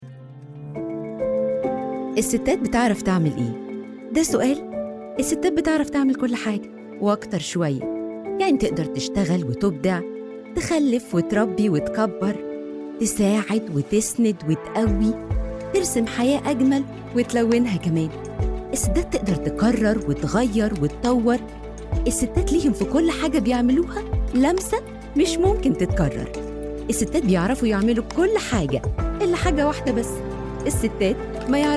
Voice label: promo

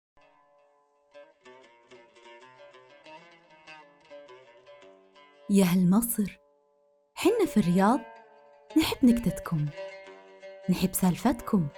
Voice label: saudi demoreel

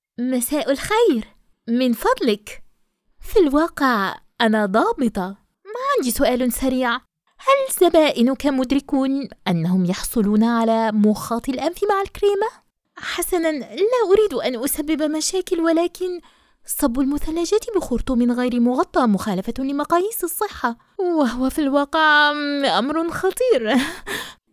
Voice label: dub; zootobia

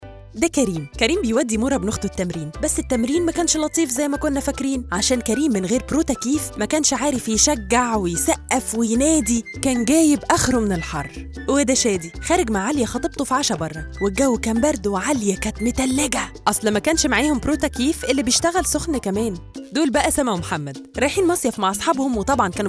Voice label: EG Commercial, Arabic; Protakyeef

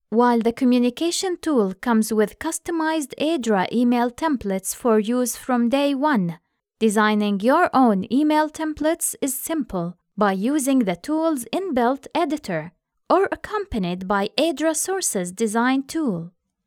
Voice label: English vo

